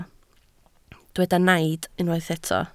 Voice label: "naid", Welsh

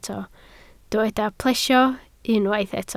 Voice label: "plesio", Welsh

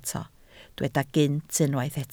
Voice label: "gynt", Welsh

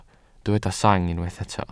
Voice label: "sang", Welsh

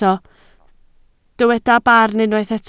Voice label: "barn", Welsh

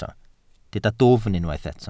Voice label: "dwfn", Welsh

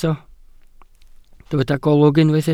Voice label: "golwg", Welsh

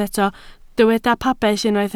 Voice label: "pabell", Welsh

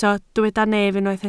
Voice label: "nef", Welsh

